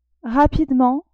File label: "rapidement", French; adverbs